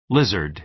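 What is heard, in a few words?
"lizard", American English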